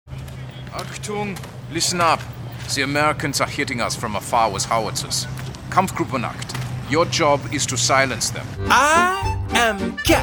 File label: ACCENTS